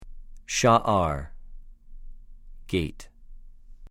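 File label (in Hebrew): "שַׁ֫עַר", Hebrew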